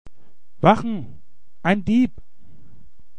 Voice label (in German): Normal - Dieb